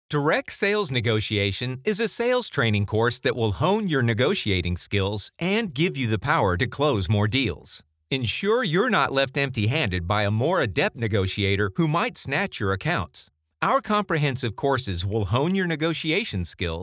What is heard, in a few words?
Online Sales Training